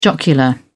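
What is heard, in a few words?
"jocular", British English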